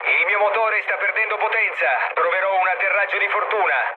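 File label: Italian damaged engine mood low, speech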